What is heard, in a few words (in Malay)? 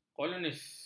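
"kolonis", Malay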